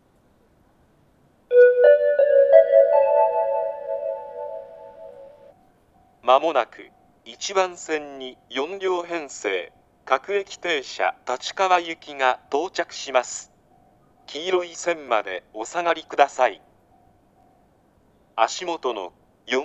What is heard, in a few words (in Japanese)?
接近放送, 旭型放送(男声), 青梅線, 4・6両編成の電車では乗車口案内が付帯していました。; 4両立川行